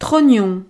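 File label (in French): "trognon", French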